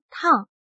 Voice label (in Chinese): "趟", Chinese